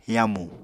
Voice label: "yamu", Apatani

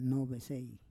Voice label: "novesei", Roon

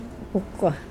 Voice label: knee, Mokilese

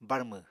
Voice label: "barmw", Apatani

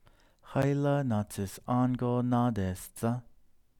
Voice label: navajo Who is going to Navajo Mountain again; verb mode

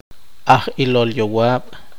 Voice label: poqomchi; enfermero

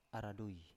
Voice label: "aradui", Biak

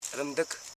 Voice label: "rim dik", Koro

